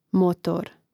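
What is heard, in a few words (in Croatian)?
"motor", Croatian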